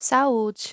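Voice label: "Saude", Brazilian Portuguese; happy birthday in portuguese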